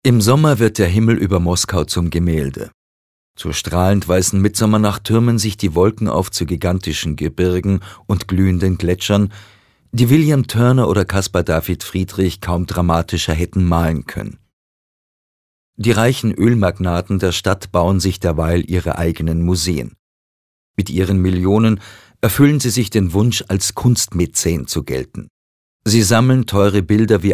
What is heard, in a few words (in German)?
Kommentar Neutral